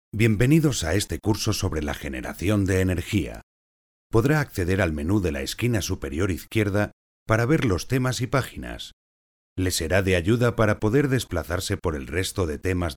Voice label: Spanish Castilian e-learning